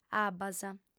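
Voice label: "abbas", lingua sarda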